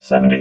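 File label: "seventy", robot, English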